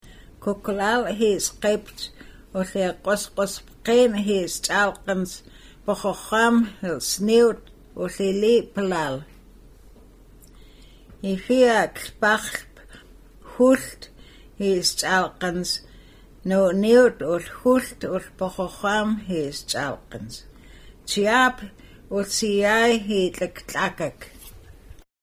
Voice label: Nsyilxcen; Armstrong Wetlands